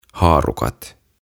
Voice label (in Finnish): "Haarukat", "Forks"; OTAVA KONSERNI